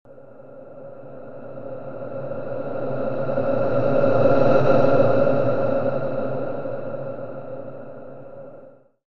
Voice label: spirit voices quick